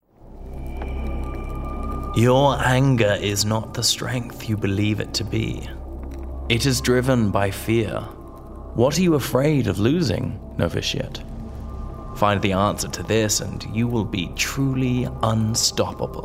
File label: Gaming Mythic Priest RP